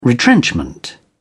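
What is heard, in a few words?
"retrenchment", British English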